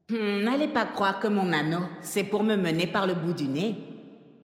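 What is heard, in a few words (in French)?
French Female Flirt; Troll Zandalari; Anneau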